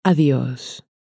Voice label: "adios", Spanish

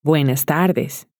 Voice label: "buenas tardes", Spanish

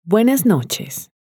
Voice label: "buenas noches", Spanish

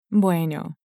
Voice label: "bueno", Spanish

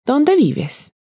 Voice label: donde vives, Spanish

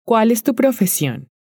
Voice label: cual es tu profesion, Spanish

